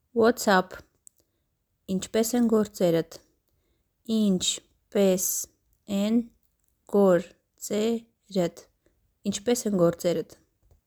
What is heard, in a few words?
Whats up, armenian; Inchpes en gortserd